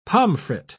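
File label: "pomfret", British English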